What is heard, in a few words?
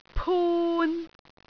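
"pun3", Cantonese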